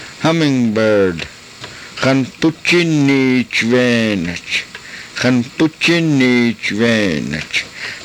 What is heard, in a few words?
"xanpuchiniishveenach", karuk